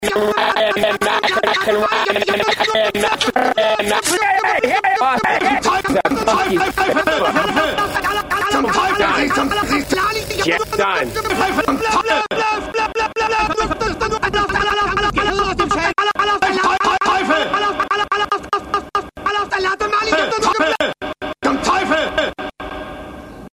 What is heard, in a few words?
commercials